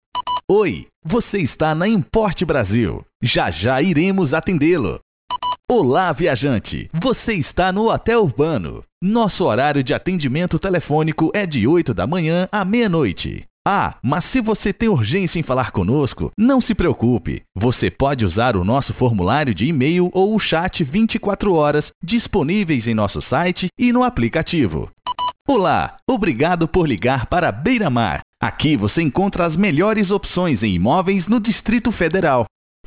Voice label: Voz Locucao Informal, locutor em ingles e espanhol

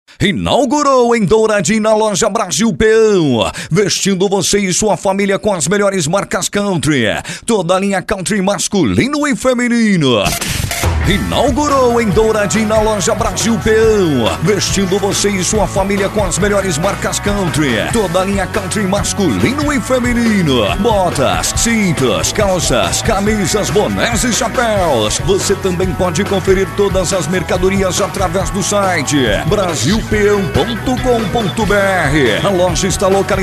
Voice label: Voz Rodeio

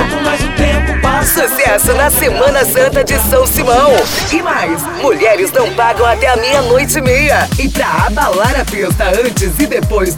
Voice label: chamada pagode vip

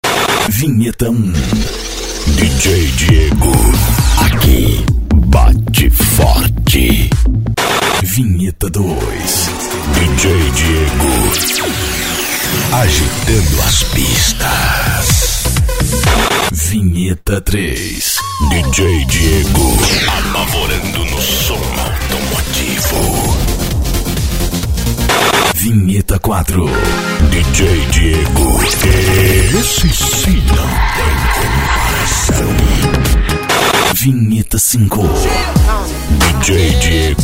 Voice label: vinhetas dj

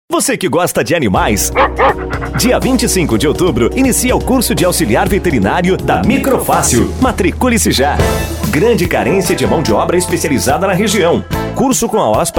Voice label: SPOT COMERCIAL; MICRO FACIL

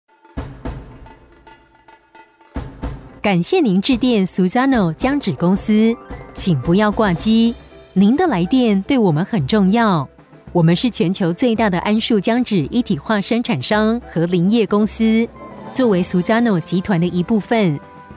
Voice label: ESPERA TELEFONICA MANDARIM

